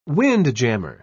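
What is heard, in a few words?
"windjammer", British English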